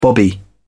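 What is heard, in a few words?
"bobby", British English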